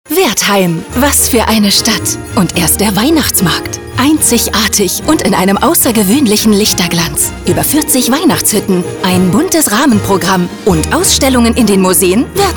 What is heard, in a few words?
german radio imaging